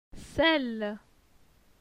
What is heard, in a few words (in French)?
"Celle", phonetique; les consonnes